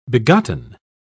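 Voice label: "begotten", en, uk British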